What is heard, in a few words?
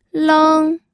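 "leng", first tone, pinyin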